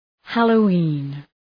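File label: "Halloween", English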